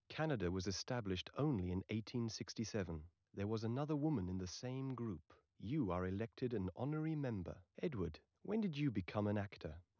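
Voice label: male, live recording, 250 ms, source; SiSEC11; underdetermined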